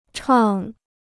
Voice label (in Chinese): "唱", Chinese